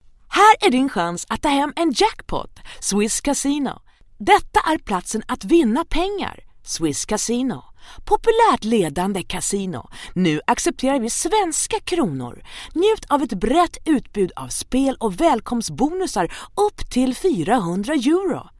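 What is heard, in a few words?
Swedish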